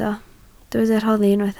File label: "rhoddi", Welsh